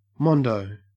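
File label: "mondo", Australian English; pronunciations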